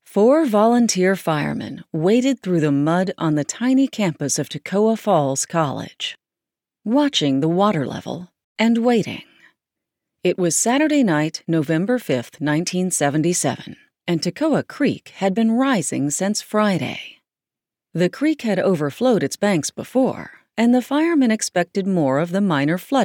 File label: US Canadian Storytelling VO